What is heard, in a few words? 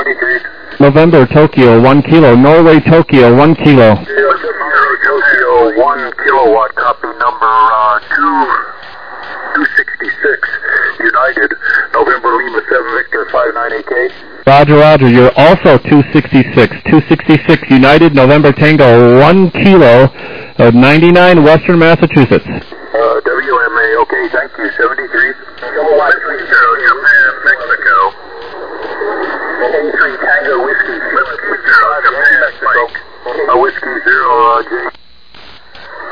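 upper sideband 21MHz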